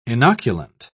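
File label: "inoculant", British English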